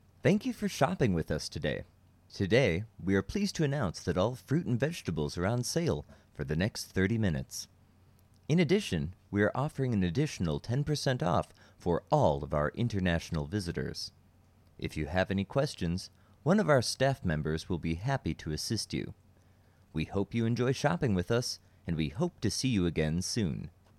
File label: announce